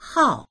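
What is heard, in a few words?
"hao4", Mandarin Chinese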